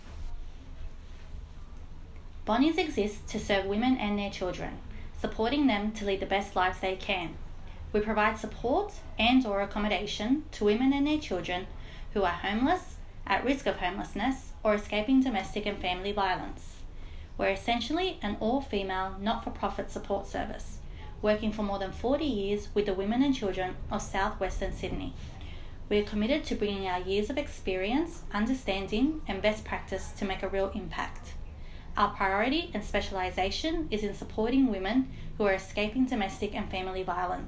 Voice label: English